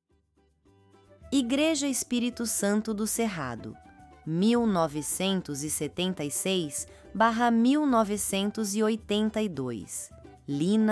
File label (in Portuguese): narracao; igreja